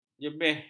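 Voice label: "jebeh", Malay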